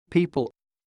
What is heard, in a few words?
"PEOPLE", English